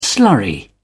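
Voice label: "slurry", English, British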